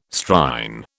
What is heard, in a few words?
"Strine", British